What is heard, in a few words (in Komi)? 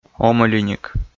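"омӧлиник", Komi, male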